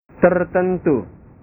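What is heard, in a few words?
"tertentu", Indonesian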